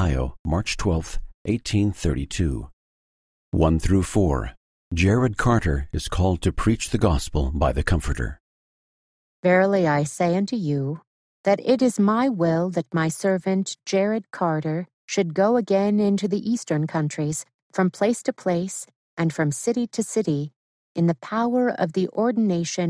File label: section female voice eng